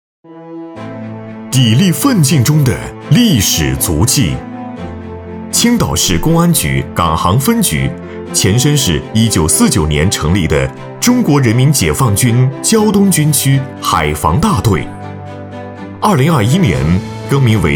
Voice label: 男 专题宣传 大气力度 警察节

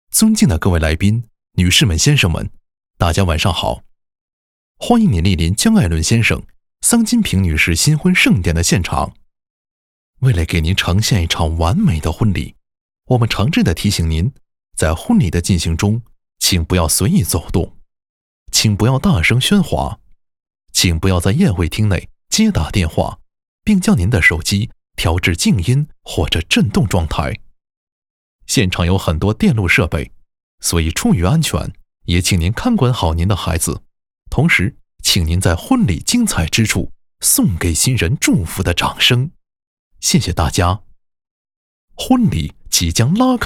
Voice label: 男 语音提示 婚礼现场温馨提示

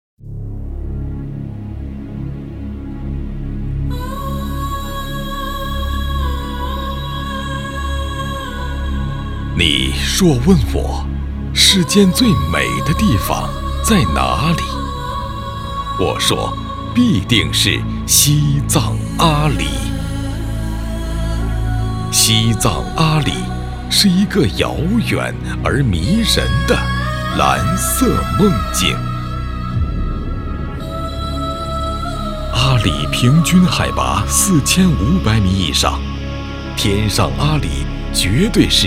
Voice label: 男 旅游宣传片 磅礴 震撼 豪迈 向往

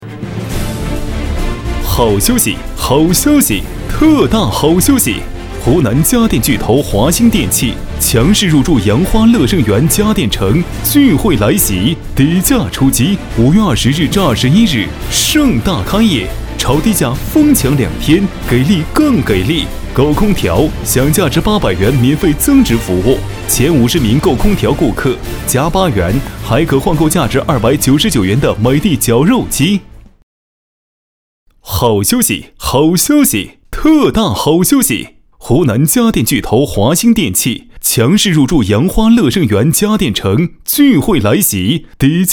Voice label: 男 促销 家电

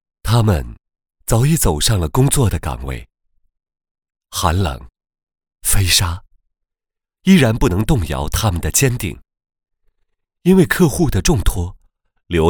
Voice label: 男 独白 华北

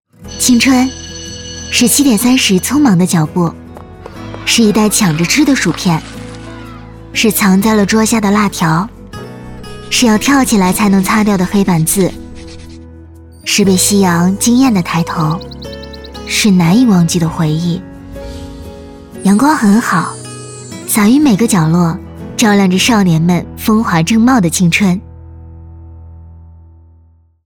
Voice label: 女 文艺 轻松活泼 青春少女感

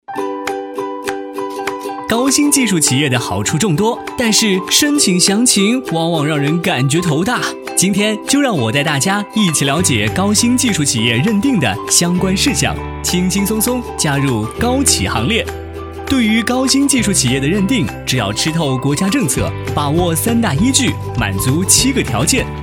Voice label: 男 飞碟说 高新技术企业政策解读

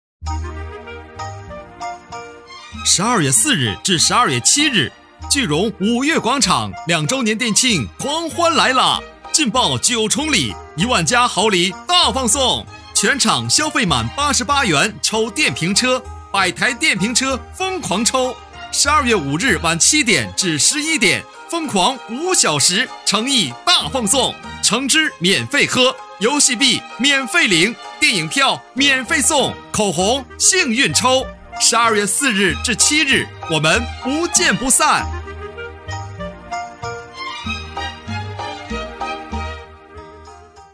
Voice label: 男 活动宣传

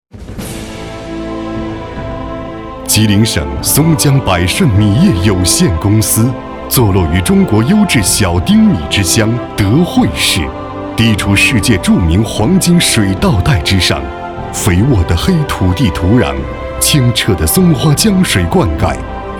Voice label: 男 颁奖 浑厚大气; 吉林大米十大领军企

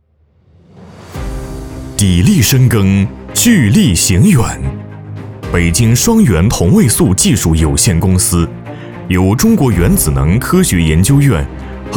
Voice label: 男 专题宣传 大气科技力度

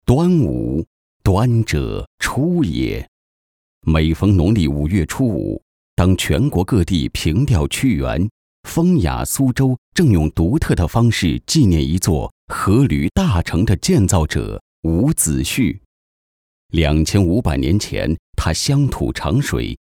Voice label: 端午配音

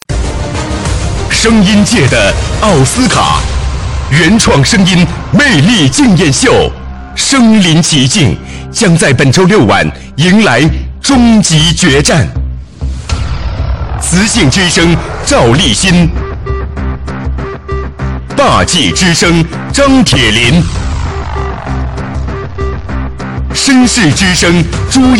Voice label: 挑战湖南卫视频道音

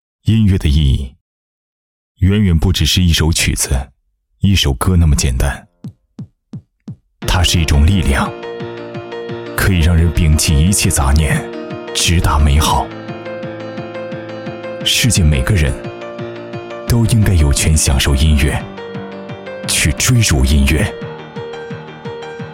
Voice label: 男-品牌力量; 传祺

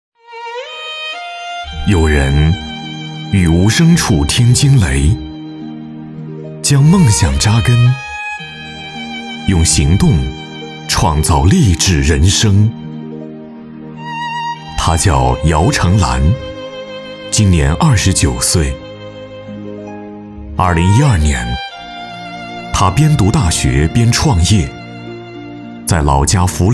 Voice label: 男 专题宣传 人物纪录风格

